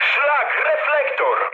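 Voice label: searchlite mood med, speech Polish; War Thunder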